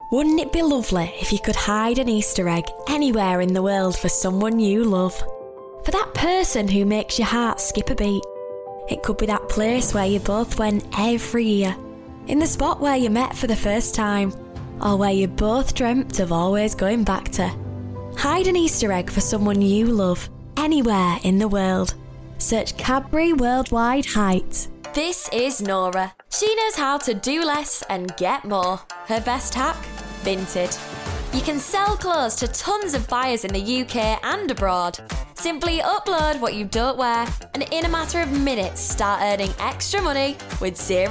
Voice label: female English multiple accents commercial compilation